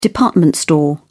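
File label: "department store", British English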